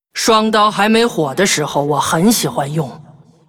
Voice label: Hero female demon hunter pissed

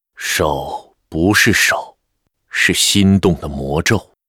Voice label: Spellbreaker pissed, zh

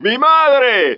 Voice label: 拉丁裔海滩中年男性; 罪恶都市; Vimagere!

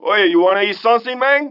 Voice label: 拉丁裔海滩中年男性; 罪恶都市

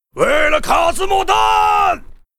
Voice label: Gryphon rider war cry

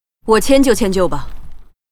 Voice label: Huntress pissed

